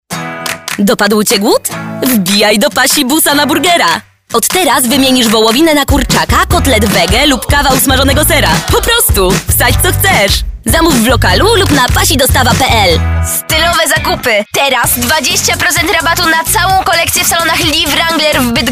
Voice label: polish female commercials

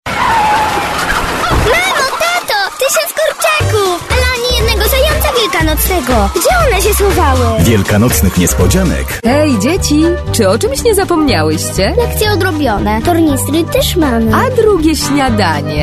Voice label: COMMERCIALS

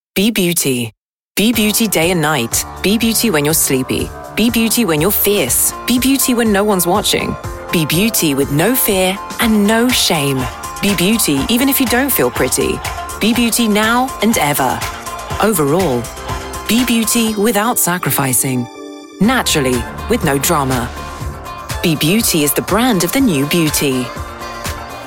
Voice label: commercial british

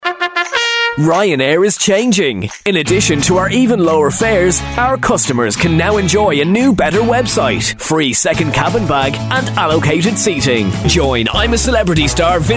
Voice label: COMMERCIALS

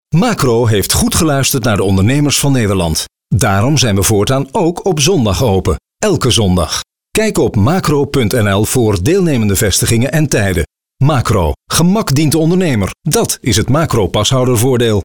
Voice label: dutch male

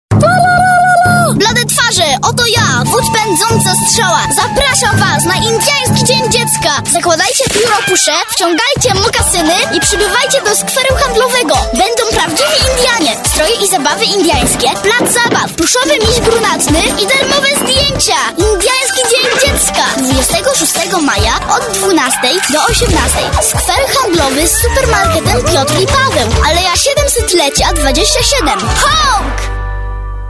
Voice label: COMMERCIALS

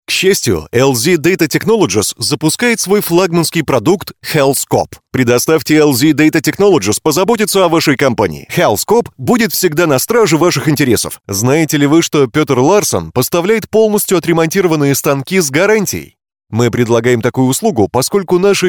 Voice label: commercial russian

